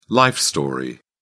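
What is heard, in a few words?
"life story", British English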